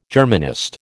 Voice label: "Germanist", American